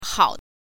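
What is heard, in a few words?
"hao", Mandarin Chinese, neutral tone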